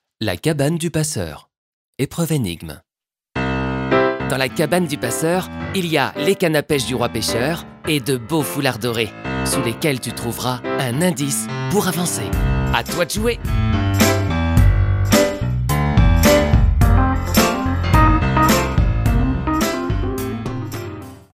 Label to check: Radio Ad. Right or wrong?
Wrong